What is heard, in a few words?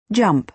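"jump", American English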